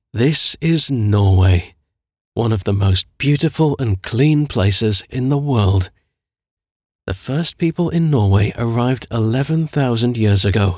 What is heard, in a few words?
Documentary Narrator